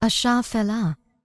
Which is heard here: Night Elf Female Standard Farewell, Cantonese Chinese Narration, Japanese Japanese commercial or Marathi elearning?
Night Elf Female Standard Farewell